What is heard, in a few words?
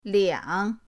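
"two liang3", Mandarin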